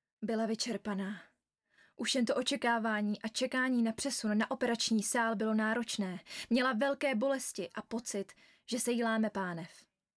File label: czech